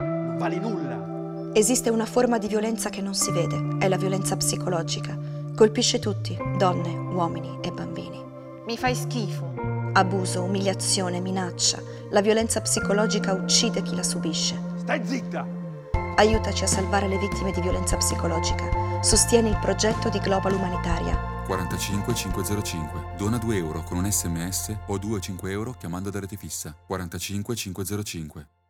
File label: radio